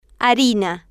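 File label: "harina", Spanish, female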